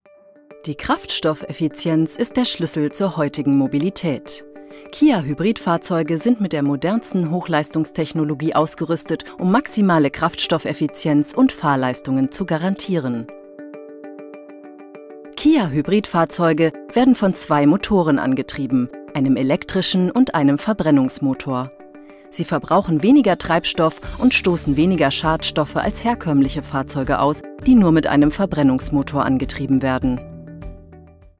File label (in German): Erklaerfilm